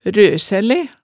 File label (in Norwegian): "røsæle", hemsedalsmaalet